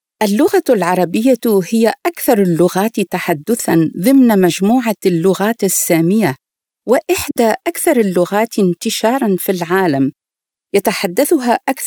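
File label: Arabic female corporate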